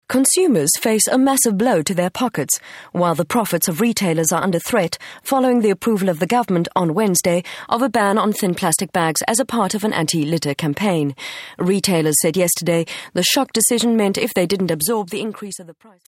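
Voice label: English Corporate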